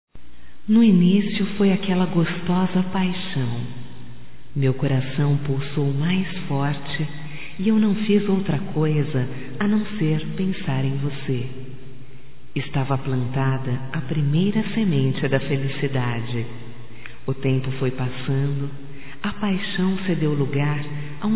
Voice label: voz Aniversario casamento romantico, fem; Telemensagens 2017

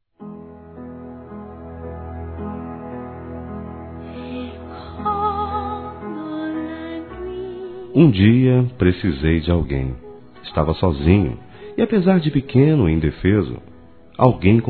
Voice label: MÃE ADOTIVA M, Dia das Mães, Masc; Telemensagens 2017

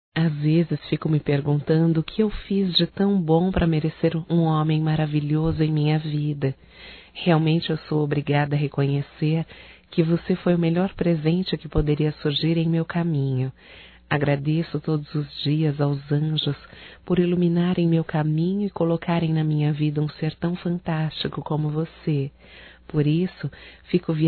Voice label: ROMANTICA(F), Românticas, fem

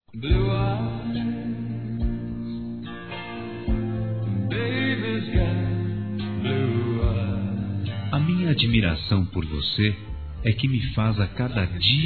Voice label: Amizade, Telemensagens, Masc

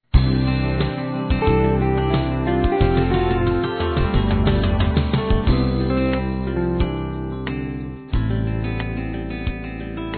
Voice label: Aniversário Chefe Feminino; Telemensagens; Empresarial; Telemensagens 2017

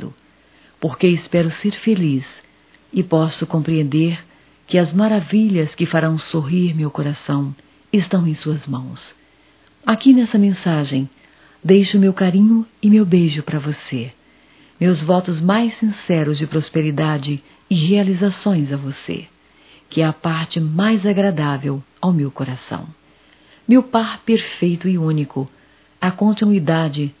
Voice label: Ano novo romantico - F; Natal e ano novo; Telemensagens 2017

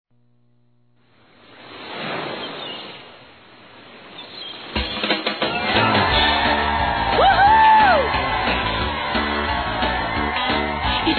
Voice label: Aniversário Filha Masculino; Telemensagens 2017; Aniversários; Aniversário Infantil

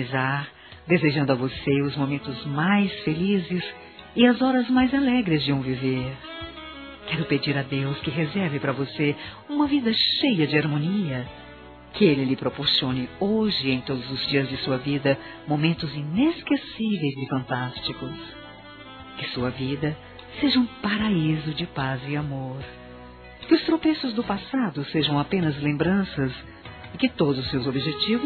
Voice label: ANIVERSÁRIO NETA F, Telemensagens, Fem; Aniversários; Aniv Familiar

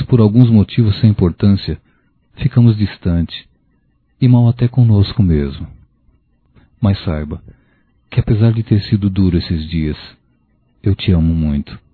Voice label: Me Perdoe As Atitudes Masculino, Telemensagens

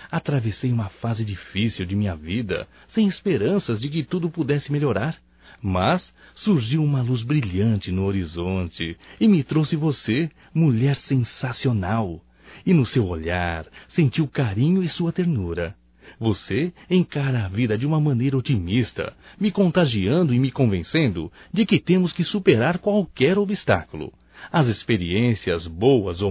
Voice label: ANIV Romântico (M)

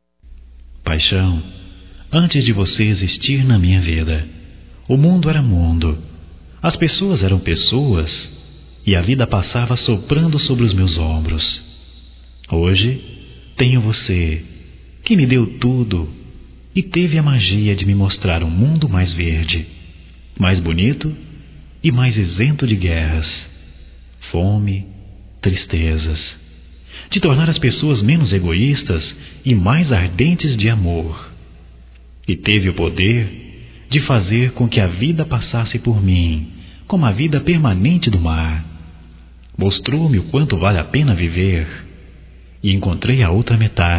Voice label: ROMANTICA GERAL, Românticas masc

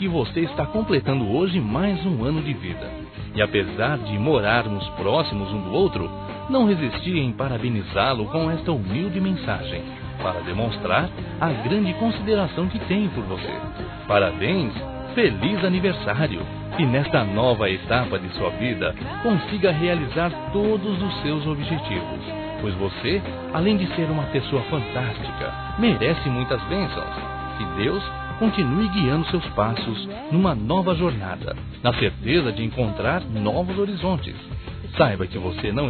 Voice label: VIZINHO M

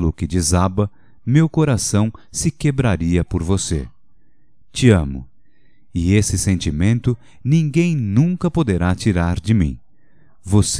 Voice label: Não Vá Embora Masculino, Só Voz; Telemensagens; Telemensagens 2017

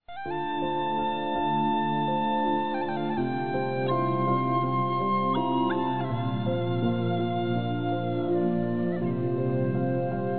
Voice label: Amizade Maravilhosa MASCULINO, Telemensagens

